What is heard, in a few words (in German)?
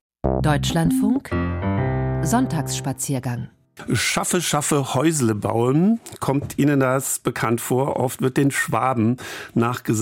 schwaebisch, dialects; dialektatlas